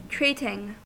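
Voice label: "treating", US English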